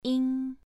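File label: "ying", Mandarin Chinese, second tone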